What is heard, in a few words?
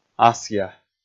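"асъя", Komi, male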